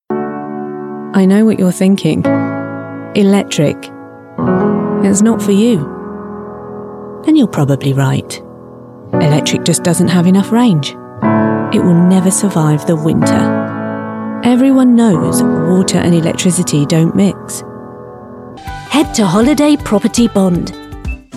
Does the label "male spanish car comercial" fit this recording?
No